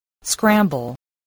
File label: "scramble", english spelling